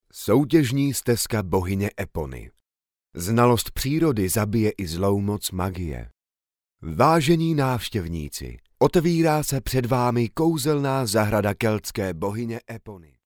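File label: Czech, male, narration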